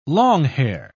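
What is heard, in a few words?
"longhair", British English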